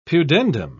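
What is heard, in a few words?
"pudendum", British English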